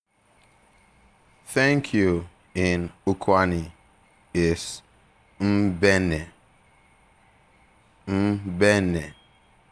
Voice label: Thank you Ukwani

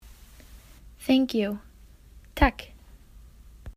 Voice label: Thank You Swedish

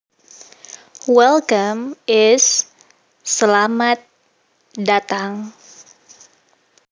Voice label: Welcome Indonesian

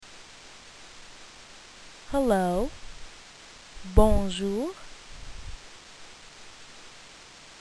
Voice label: Hello French

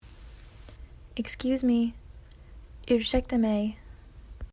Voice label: Excuse Me Swedish

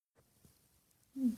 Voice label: Thank You Ukranian

